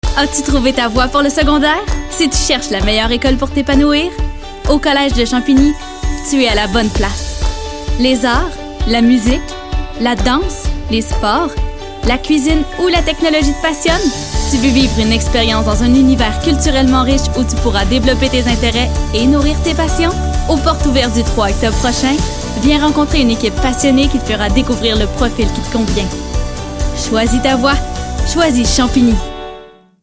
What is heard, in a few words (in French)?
annonceur fr qc naturel amical avec sourire jeune adulte; college champigny